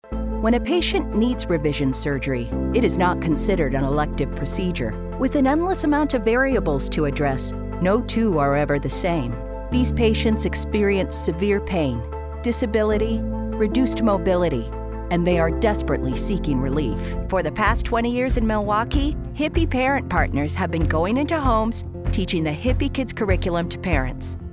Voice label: Corporate